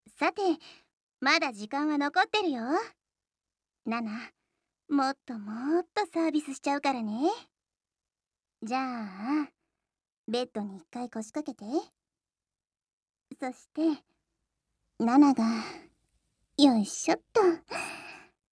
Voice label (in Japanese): 耳舐め、囁き; サービス満点！耳舐め、囁き、フェラチオでフィニッシュ